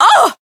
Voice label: Voice damaged; channon

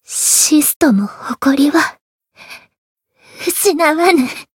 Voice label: retire, jp; tiramisu

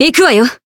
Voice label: Voice attack jp

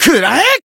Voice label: Voice attack jp

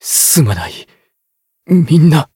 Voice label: voice jp; joker

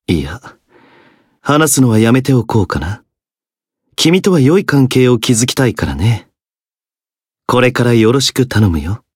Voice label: story, jp; zeviro

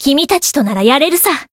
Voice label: chant jp

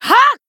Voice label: attack, jp

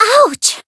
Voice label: Voice damaged

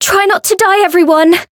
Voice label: Voice variable chant; orleya

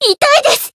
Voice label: Voice damaged, jp; veina

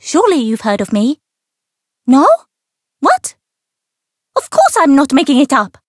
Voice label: story; otoha

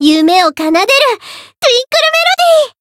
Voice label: Voice variable chant jp; melody

